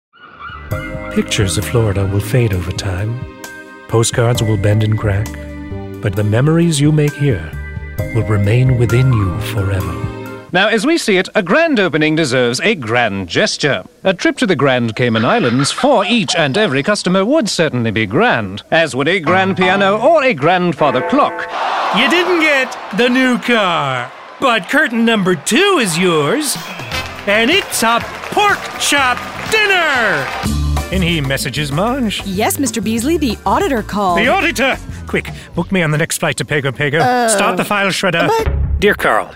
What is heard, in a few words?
commercial